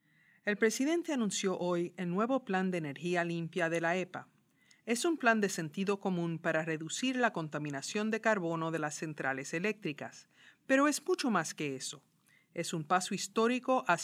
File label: spanish answer